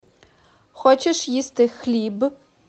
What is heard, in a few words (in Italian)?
Ucraino